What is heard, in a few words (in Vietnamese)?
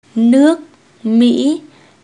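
"nước mỹ", tiếng việt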